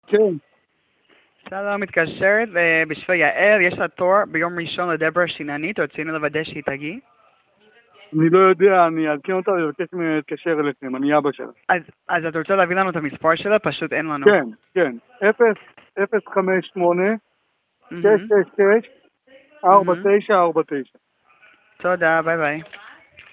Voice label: call recording